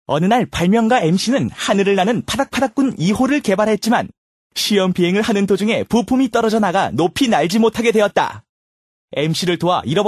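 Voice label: Korean male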